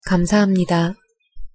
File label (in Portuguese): pronuncia coreano obrigado